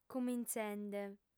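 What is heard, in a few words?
"cumintzende", female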